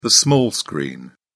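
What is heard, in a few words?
"small screen", English, British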